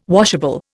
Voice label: "washable", Indian